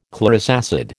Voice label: "chlorous acid", American